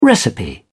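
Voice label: "recipe", British English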